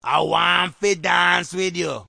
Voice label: i want be dance with you, Rastafarian